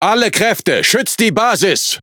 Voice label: Speech Quick Commands Ally Base, DE; World Of Warships